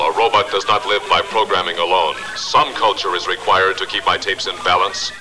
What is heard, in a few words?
need culture, robot; robot b9